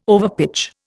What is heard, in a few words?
"overpitch", Indian